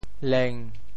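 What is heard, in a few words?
"leng1", Teochew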